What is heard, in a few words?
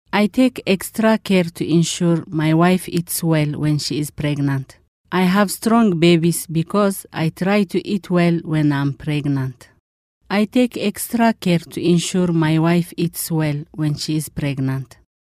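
Eritrean English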